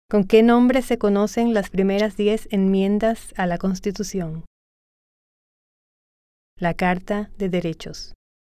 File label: Spanish